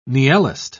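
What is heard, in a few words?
"niellist", British English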